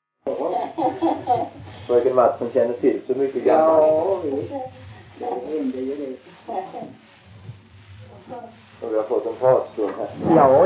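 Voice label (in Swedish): Tving Blekinge, dialekter svenska sagor; Folkminnen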